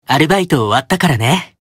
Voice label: Job Notification Voice; Simeon